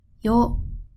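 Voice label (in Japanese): "よ", Japanese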